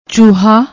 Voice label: "chooha", Punjabi; ਚੂਹਾ